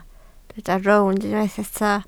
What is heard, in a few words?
"rownd", Welsh